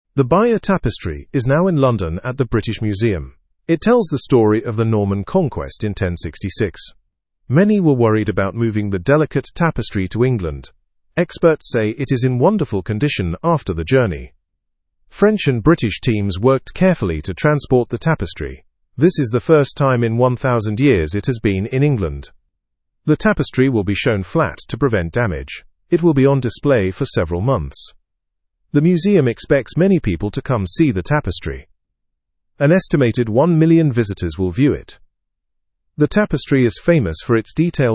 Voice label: english news lower intermediate fast; bayeux tapestry exhibited in london

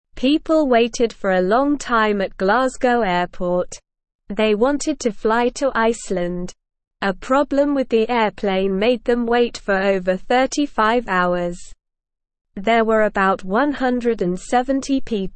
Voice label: English Newsroom Beginner SLOW Reading; Long Wait for Iceland Flight

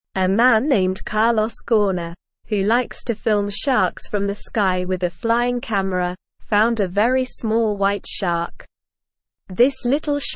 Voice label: English Beginner SLOW Reading; Carlos Finds Special White Baby Shark with Flying Camera

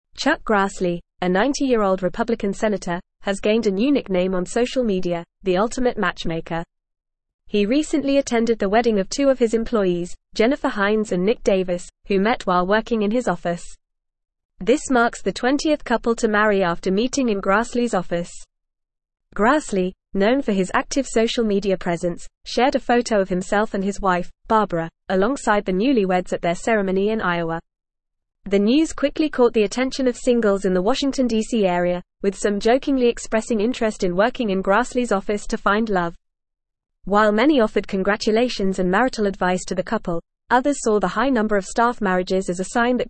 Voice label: English Newsroom Advanced FAST Reading; 90 year old Senator Grassley dubbed ultimate matchmaker with

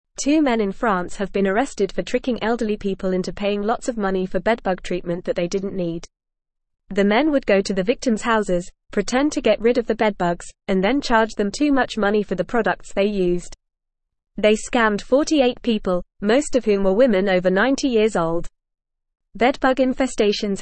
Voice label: Upper Intermediate FAST Reading, English; English Newsroom; Men arrested for scamming elderly in France with fake bedbug treatments; Two men in France have been arrested for tricking elderly people into paying lots of money

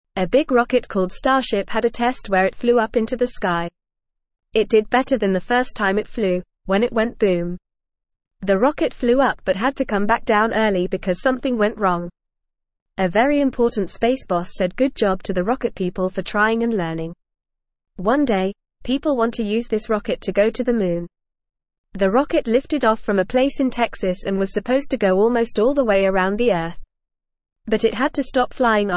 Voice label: English Newsroom Beginner FAST Reading; Starship Rocket Flies High Still Learning

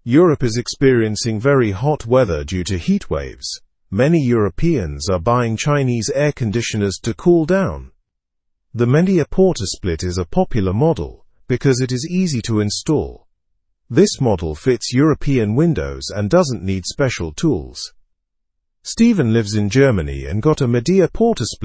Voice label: english through news lower intermediate; europeans choose chinese air conditioners in heatwave

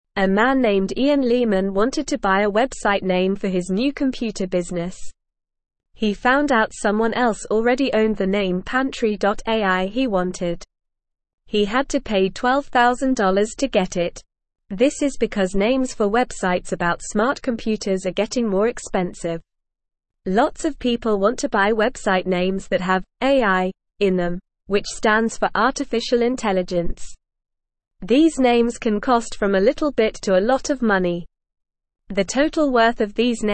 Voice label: English Lower Intermediate NORMAL Reading; Buying Website Names for Robot Helper Companies; English Newsroom

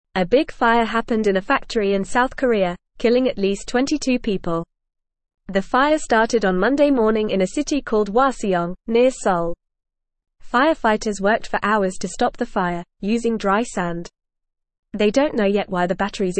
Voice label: English Newsroom Lower Intermediate FAST Reading; Big Fire in South Korean Factory Batteries Exploded

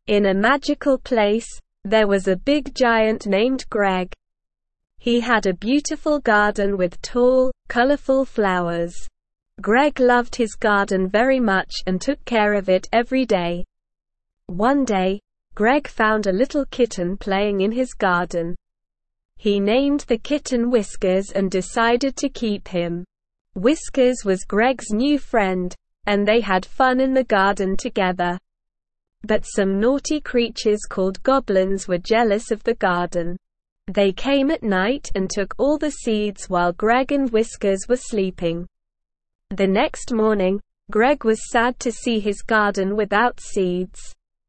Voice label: ESL Short Stories for Kids Beginner SLOW Reading; The Giants Garden

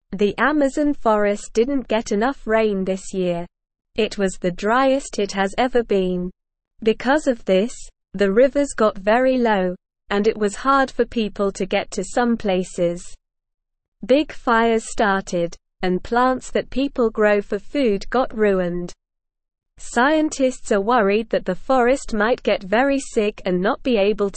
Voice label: English Beginner SLOW Reading; English Newsroom

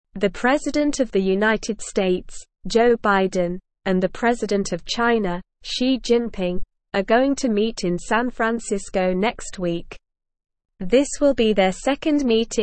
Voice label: English Lower Intermediate SLOW Reading; Biden and Xi Jinping to Meet in San Francisco; English Newsroom

